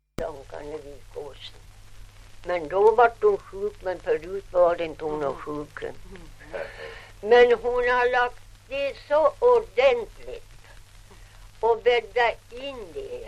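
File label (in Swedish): Ovansjö Gästrikland, dialekter, Svenska sagor; Folkminnen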